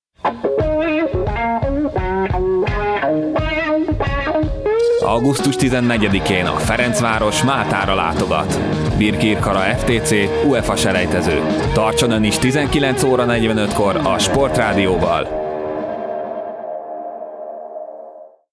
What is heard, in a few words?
spot, sportradio